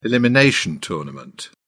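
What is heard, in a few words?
"elimination tournament", British English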